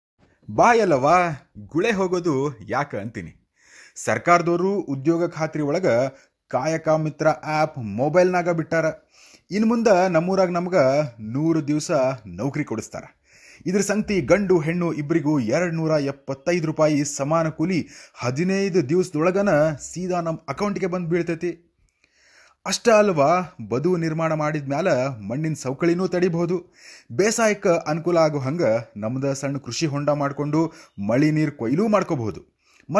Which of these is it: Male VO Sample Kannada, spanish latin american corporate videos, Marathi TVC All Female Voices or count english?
Male VO Sample Kannada